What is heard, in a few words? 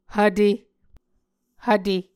"hvte", Muscogee